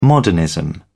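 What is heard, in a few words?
"modernism", British English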